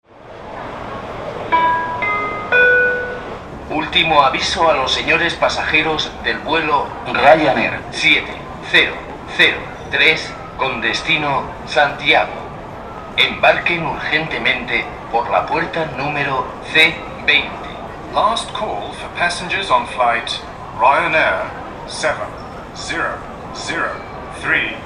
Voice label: ultimo avisto, Flughafen Ansagen; Ryan Air 7003